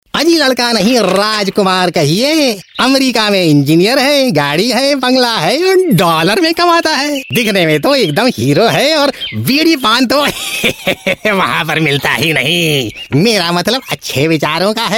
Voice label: Hindi Male Fun Comic Style; India